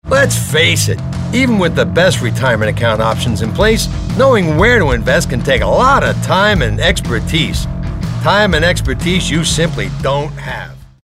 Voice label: attitude